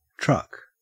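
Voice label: "truck", Australian English; pronunciations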